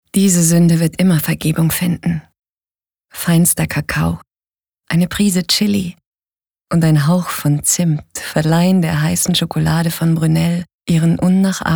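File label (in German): advert, Sanft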